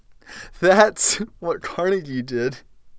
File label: amused; emov db